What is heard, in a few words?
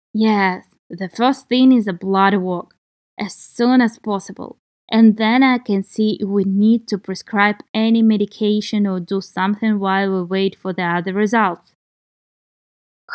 Medical Certified Oral Russian Practice